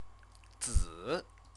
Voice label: "zi", Chinese

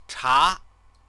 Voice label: "cha", Chinese

